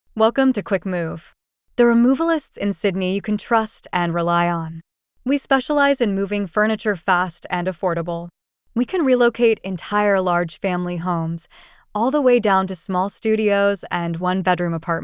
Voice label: ANNOUNCEMENT; removalists cammeray